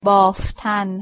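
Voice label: "baaftan" weave, Persian, female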